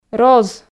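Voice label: "роз", Komi, female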